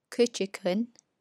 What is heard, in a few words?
ASMR